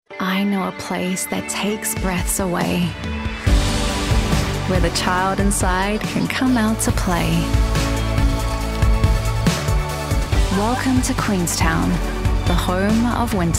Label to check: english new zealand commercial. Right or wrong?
Right